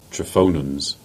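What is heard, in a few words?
"Trevaunance", Cornish; place-names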